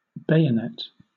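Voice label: "bayonet", British English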